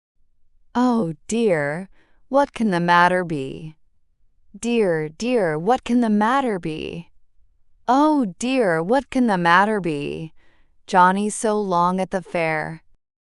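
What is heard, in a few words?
female US, classic rhymes; Oh Dear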